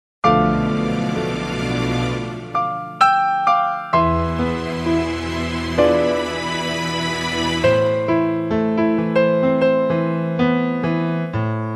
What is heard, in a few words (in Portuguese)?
ANIV SOGRO MASC, telemensagem; aniversario sogra sogro